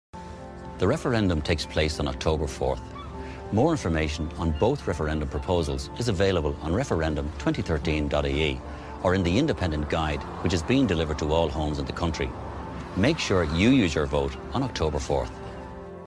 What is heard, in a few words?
english irish political ads